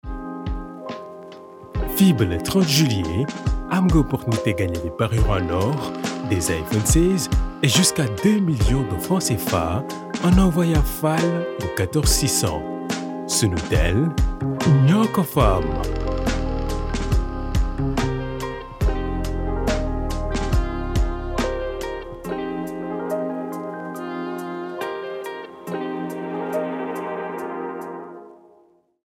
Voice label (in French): voix avec musique posee